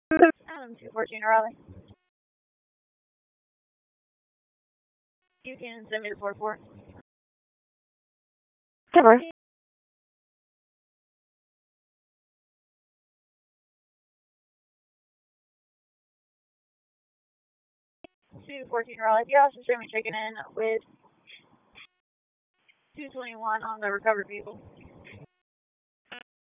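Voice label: Police Northeast District Dispatch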